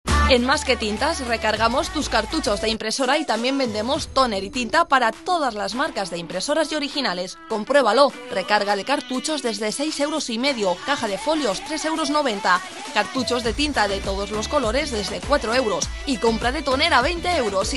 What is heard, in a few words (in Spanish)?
anuncio; masquetintas